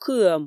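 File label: "кыӧм", Komi, female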